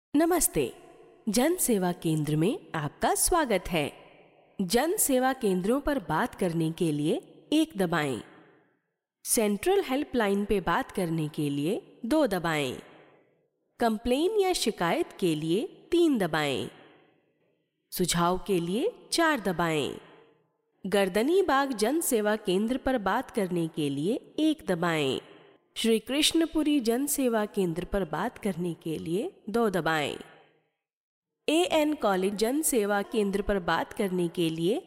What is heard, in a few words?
tollfree ivr